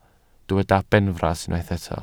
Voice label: "benfras", Welsh

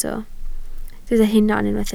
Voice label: "hunan", Welsh

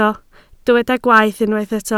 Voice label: "gwaeth", Welsh

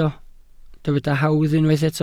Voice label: "hawdd", Welsh

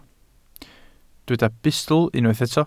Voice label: "bustl", welsh

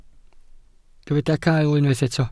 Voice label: "cael", Welsh

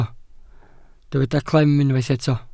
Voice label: "clem", Welsh

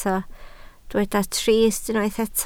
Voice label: "trist", Welsh

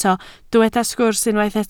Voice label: "sgwrs", Welsh

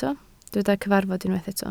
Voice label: "cyfarfod", Welsh

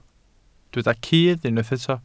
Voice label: "cudd", Welsh

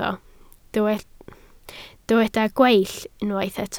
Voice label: "gweill", Welsh

